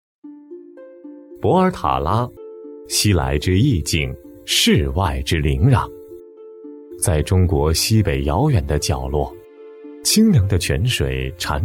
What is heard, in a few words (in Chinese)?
纪录片 舌尖 舌尖风格纪录片, 男国